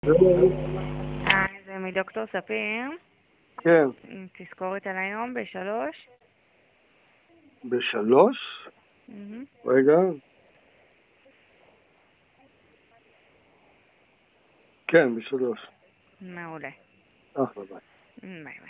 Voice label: call recording